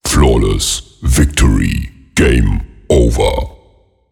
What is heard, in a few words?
Flawless Victory Game Over Deep Voice